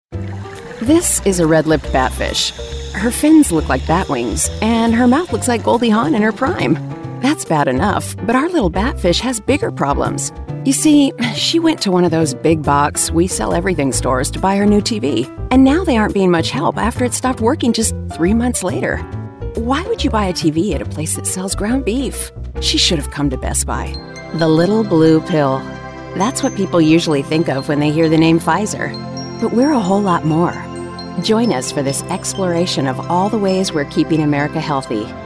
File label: Explainer